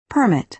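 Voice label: "permit", American English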